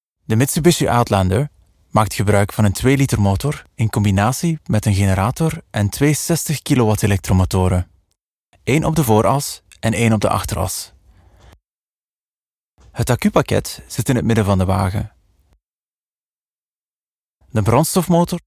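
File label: Flemish, male, corporate; voice over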